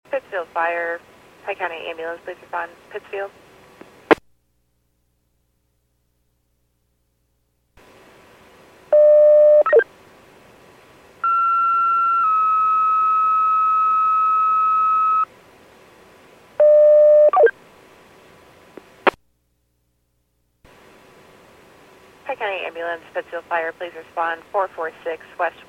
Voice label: PAGING